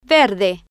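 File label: "verde", Spanish, female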